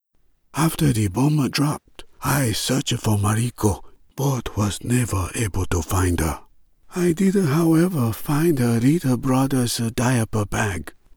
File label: Japanese accented English Senior